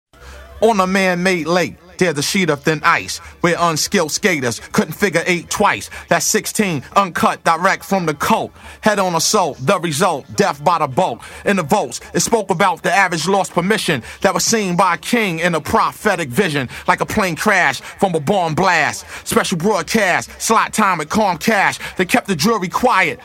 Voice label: acapella